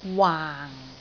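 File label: "waang4", Cantonese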